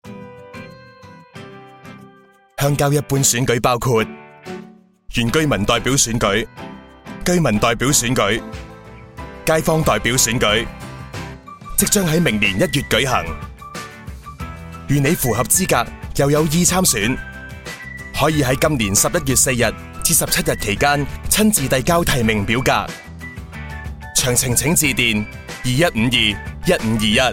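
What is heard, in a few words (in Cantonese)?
radio, Cantonese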